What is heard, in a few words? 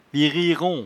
"virierond", patois jura